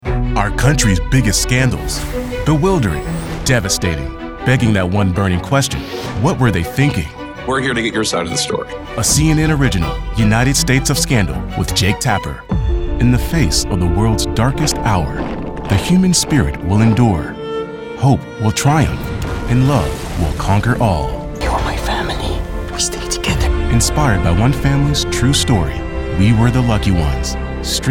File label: men promos; Baritone, confident, cool, smooth, believable, friendly, Millennial, and warm.